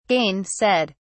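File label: "gainsaid", British English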